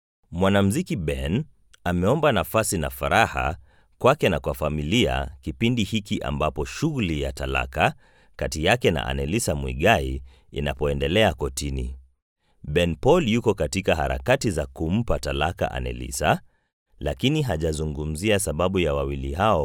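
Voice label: Swahili, male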